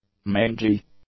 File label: "mangy", English; adjectives